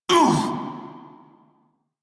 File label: Pain Male en; Dialog; Warframe; Railjack Crew; Red Veil